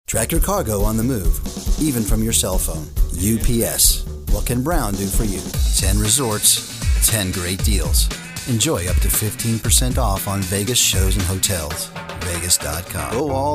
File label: Commercial